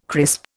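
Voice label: "crisp", Indian English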